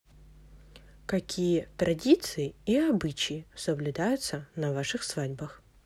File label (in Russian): свадьба русский